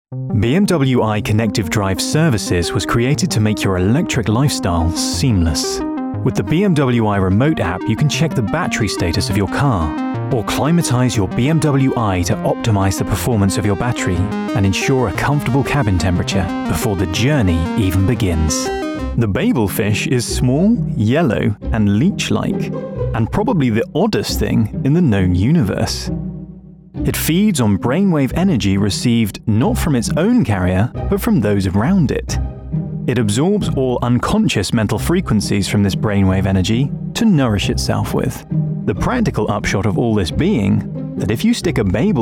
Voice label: Narrative